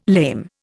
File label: "lame", Indian